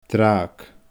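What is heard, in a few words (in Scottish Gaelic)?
"drabhag", Scottish Gaelic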